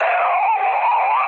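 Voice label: Polish damaged pilot mood high; speech; War Thunder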